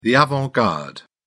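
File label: "avant garde", English, British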